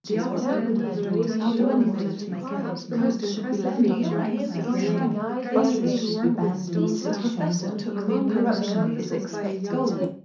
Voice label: female mix; SiSEC10; underdetermined

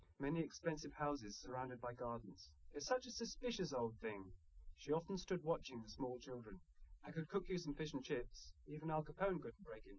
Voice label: male liverec 130ms 1m sim

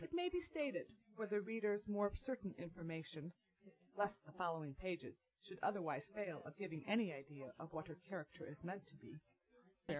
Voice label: female; SASSEC07

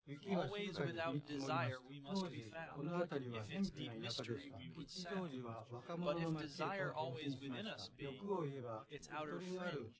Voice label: male4 inst sim

